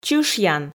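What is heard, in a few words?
"чышъян" Komi, female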